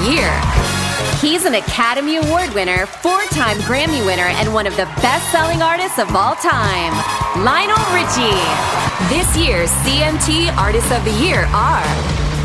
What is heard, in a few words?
women live announcing; Inviting, invigorating, warm and with-it!